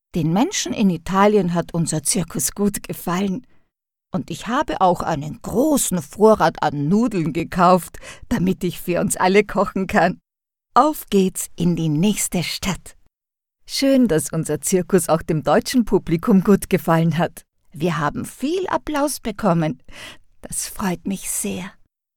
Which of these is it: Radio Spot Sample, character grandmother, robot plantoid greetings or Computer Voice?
character grandmother